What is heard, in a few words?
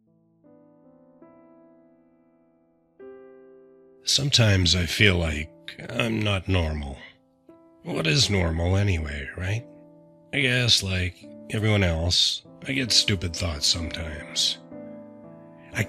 Dramatic Monologue, Dramatic Monologue of a Suicidal Male; Bilingual voice; Bilingual voice, multi-purpose Actor & background vocalist